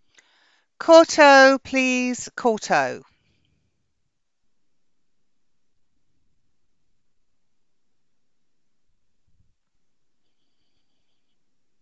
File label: Voice over for Pleasure classes